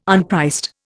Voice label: "unpriced", Indian